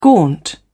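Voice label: "gaunt", British English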